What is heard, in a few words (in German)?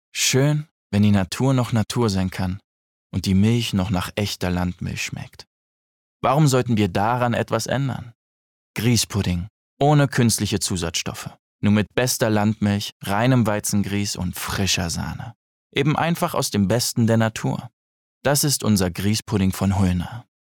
Werbung Grießpudding